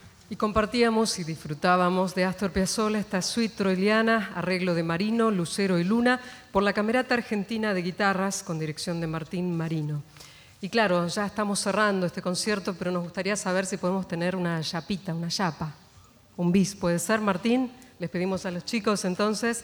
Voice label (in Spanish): locutora